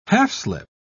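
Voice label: "half-slip", British English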